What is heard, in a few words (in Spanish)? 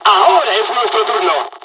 dialog, dialogs spanish; War Thunder